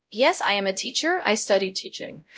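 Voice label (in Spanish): Voice Acting, es, female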